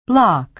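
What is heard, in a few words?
"bloc", American English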